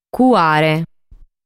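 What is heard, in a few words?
"Cuare", Sardinian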